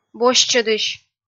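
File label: "босьтчӧдысь", Komi-Zyrian, female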